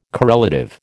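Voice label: "correlative", American English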